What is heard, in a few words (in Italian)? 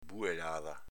"buelada", dialetto veneto